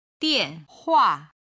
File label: "dianhua", Chinese